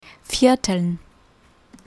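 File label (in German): "vierteln", German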